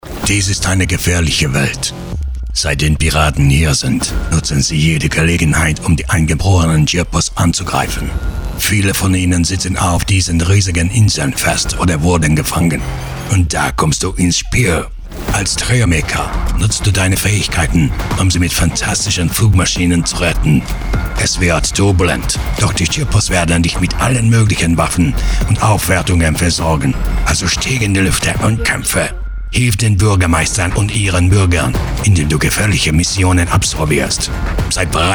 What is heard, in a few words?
Trailer